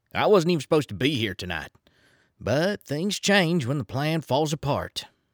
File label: Southern US Character